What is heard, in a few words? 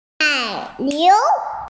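"dairy cow", Mandarin Chinese, female; nai3niu2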